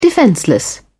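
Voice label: "defenceless", British English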